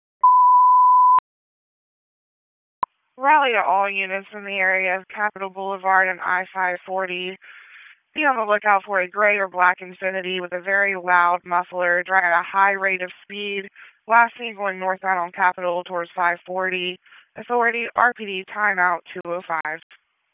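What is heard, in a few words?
Police Northeast District Dispatch, Raleigh; p25; wake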